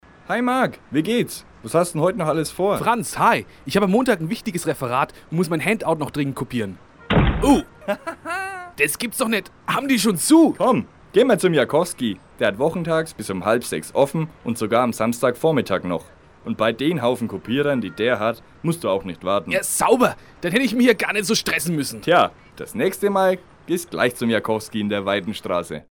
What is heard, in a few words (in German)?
Werbung